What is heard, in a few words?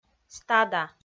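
"стада", Komi, female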